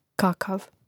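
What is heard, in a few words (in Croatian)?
"kakav", Hrvatski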